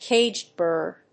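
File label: "caged bird", English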